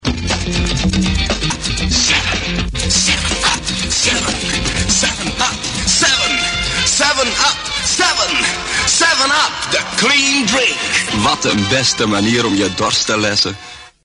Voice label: 7up dutch